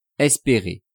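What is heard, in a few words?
"esperer", French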